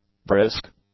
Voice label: "brisk", English; adjectives